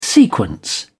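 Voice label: "sequence", British English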